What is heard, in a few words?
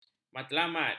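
"matlamat", Malay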